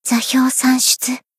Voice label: Cheerleader Battle Shout; Hibiki

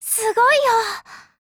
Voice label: APPRECIATE, JP; CZ100

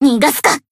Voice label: Battle Shout; Iori Swimsuit

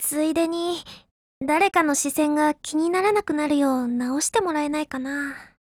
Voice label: FIX, JP; CZ100

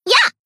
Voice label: Battle Shout; Izumi

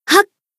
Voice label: Battle Shout; Atsuko

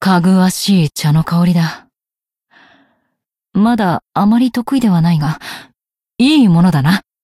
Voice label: Cafe monolog; BA; Mina

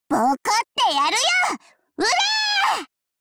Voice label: warcry

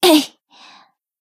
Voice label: Battle Shout; Kayoko

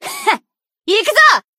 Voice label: Battle Shout; Neru

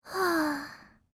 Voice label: LOW MOOD, JP; CZ100

